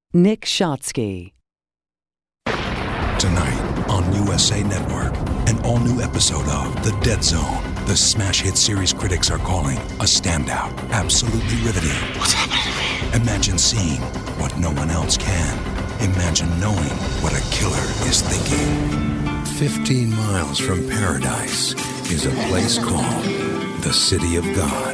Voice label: Promo